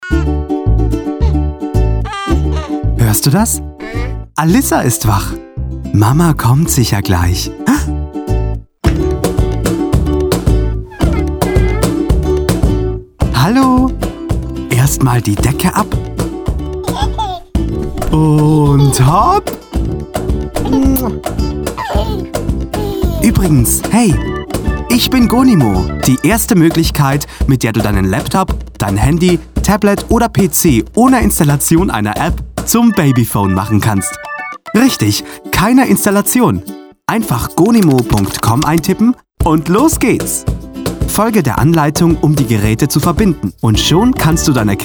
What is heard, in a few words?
TV Spot; Gonimo